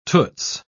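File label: "toots", British English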